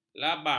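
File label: "labang", Malay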